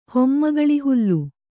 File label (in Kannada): "ಹೊಮ್ಮಗಳಿಹುಲ್ಲು", Kannada